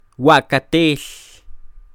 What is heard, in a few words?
"wa'katel", Quiché; viajero; traveler; INALI; UTexas; CHQETA